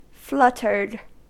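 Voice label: "fluttered", US English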